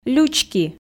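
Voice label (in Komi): "лючки", Komi, female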